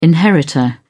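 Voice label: "inheritor", British English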